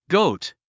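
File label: "goat", English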